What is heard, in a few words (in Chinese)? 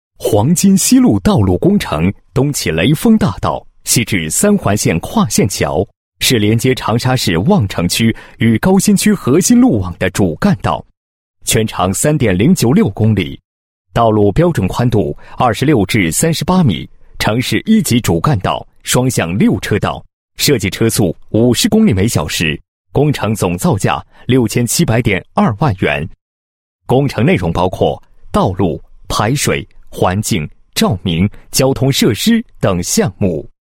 男 解说词 道路工程解说词; 黄金西路